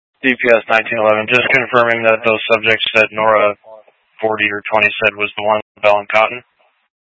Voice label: Mutual Aid-Intersystem Interop B, scanner

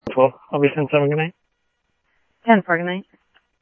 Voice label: Tempe Police, scanner; SimulcastF-Tempe

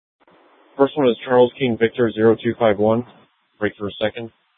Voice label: Dispatch, scanner

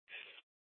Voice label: Dispatch; Scottsdale PD; Nth Dispatch

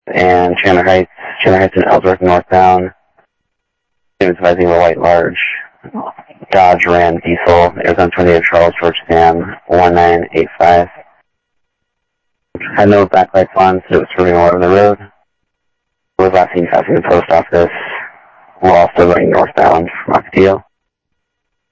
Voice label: South Scottsdale Sheriff, scanner audio; MCSO - EAST Sheriff-EastCnty

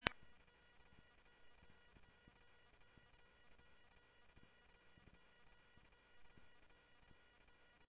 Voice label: Central Sheriff Central, scanner audio trunk; MCSO Central Sheriff-Central; ThompsonPeakScot

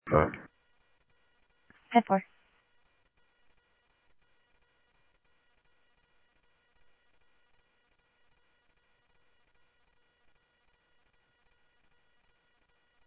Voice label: Mesa Simulcast, MESA PD, scanner audio

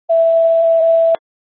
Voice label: FD Dpts VHF 156.240 Patch

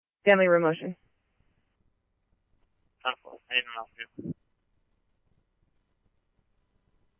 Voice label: scanner, Scottsdale Police

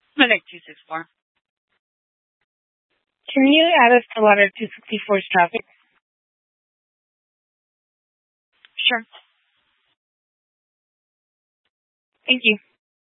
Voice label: Fire Departments, scanner; C4 Gilbert, QC, Superstition; TOPAZ; Mesa Simulcast

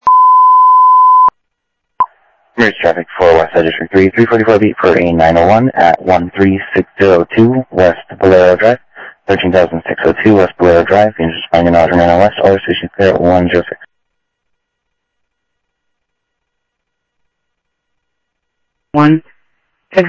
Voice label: Sheriff Common, scanner audio; ThompsonPeakScot; MCSO - Info Sheriff - Common

